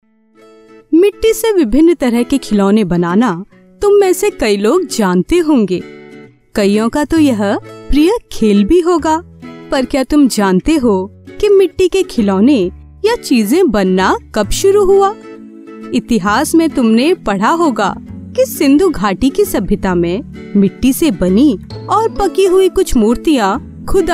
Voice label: Hindi female